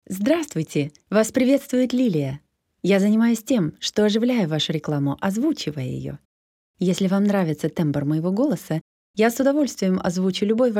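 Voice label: Russian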